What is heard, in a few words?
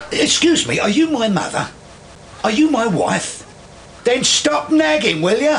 nagging